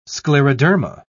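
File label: "scleroderma", British English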